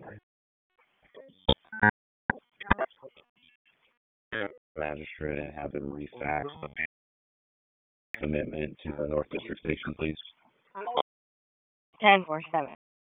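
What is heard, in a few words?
Police District Dispatch, p25; Raleigh Police North District Disp; wake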